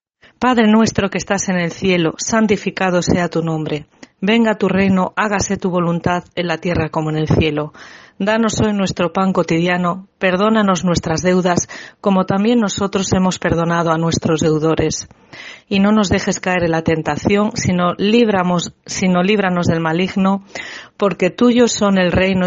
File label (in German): Spanisch